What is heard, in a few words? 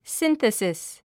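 "synthesis", English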